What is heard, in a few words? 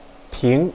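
"ping2", Chinese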